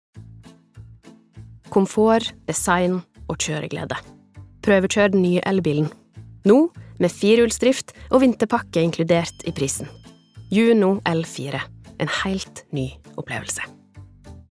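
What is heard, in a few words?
Norwegian advert, norwegian female; reklame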